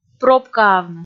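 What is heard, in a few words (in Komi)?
"пробкаавны" Komi, female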